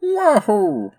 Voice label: Dream reader voice